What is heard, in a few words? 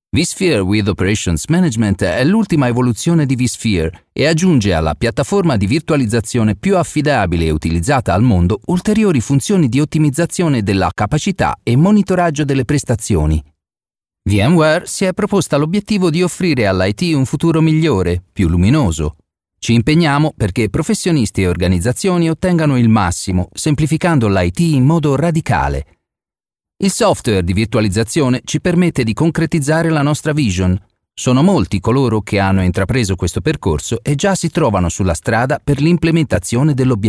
e-learning